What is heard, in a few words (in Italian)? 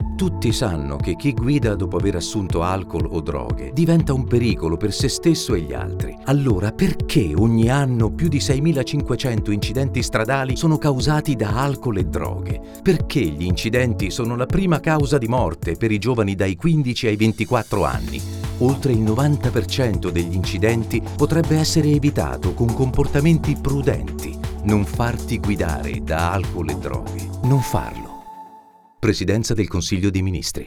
spot radio, chiediti perche